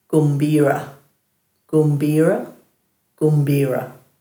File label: "gumbiira", Dhurga